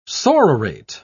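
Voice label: "sororate", British English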